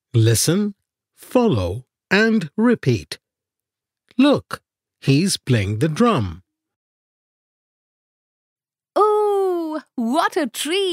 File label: Rhymes Reading Text; FSPE 1 Unit 5